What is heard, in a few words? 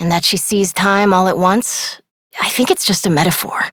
Operator Voice, en; New War; Ascend Tower